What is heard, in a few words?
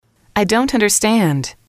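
I don't understand, female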